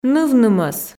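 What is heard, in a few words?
"нывнымӧс", Komi, female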